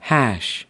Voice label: "hash", us

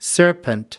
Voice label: "serpent", us

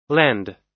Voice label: "lend", US

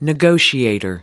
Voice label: "negotiator", us

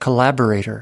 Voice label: "collaborator", us

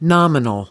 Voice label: "nominal", us

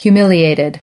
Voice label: "humiliated", us

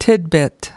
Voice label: "tidbit", us

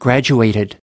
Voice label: "graduated", us

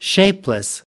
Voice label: "shapeless", us

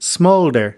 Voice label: "smolder", us

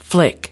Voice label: "flick", us

